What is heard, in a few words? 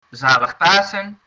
happy easter, dutch